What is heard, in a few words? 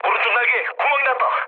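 Korean right wing mood low; speech; War Thunder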